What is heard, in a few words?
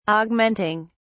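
"augmenting", British English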